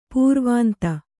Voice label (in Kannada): "ಪುರವಂತ", Kannada